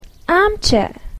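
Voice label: "armchair", English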